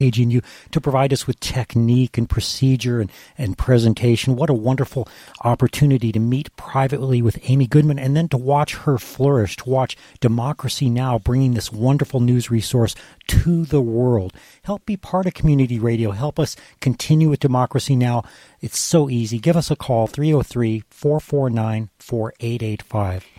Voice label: pledge rap; kgnu